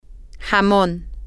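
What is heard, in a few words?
ham, spanish, female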